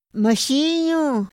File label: "ma xinu", Otomí; INPI; INALI